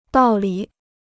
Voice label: "道理", Chinese

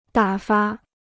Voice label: "打发", Chinese

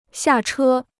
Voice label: "下车", Chinese

